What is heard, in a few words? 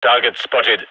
radio enemy spotted; vo; gign